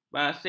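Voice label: "basing", Malay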